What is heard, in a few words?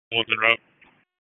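FIRE Operations, p25; FIRE OPS 3; wake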